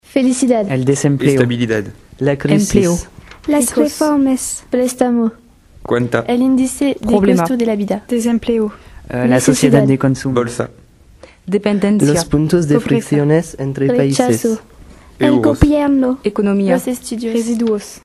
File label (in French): radio mots espagnols argent